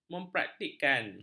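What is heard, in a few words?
"mempraktikkan", Malay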